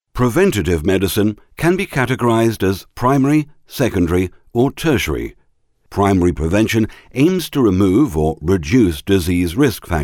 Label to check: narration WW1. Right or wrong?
Wrong